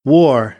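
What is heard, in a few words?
"war", American, English; AmericanBritish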